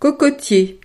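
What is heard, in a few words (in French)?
"cocotier", French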